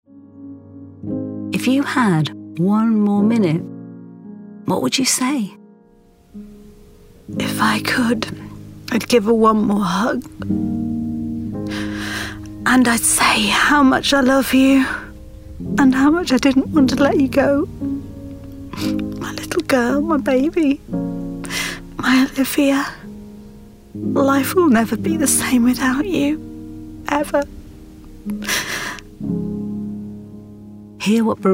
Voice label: NATURAL COMMERCIALS